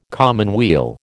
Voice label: "commonweal", American

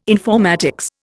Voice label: "informatics", Indian

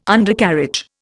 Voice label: "Undercarriage", Indian

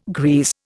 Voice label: "grease", Indian

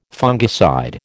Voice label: "fungicide", American

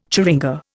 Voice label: "churinga", Indian

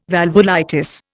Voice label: "valvulitis", Indian

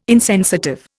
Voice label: "insensitive", Indian

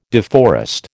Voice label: "deforest", American

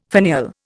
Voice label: "finial", Indian

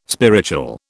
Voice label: "spiritual", British

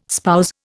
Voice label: "spouse", Indian

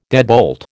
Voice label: "deadbolt", American

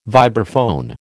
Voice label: "vibraphone", American

